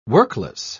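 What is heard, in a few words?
"workless", British English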